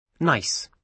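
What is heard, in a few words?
"nice", British English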